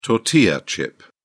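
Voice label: "tortilla chip", English, British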